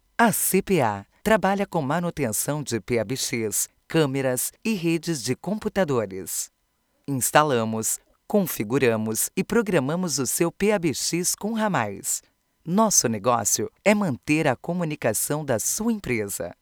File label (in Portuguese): Mensagem espera Voz